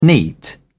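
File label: "neat", British English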